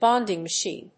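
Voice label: "BONDING MACHINE", English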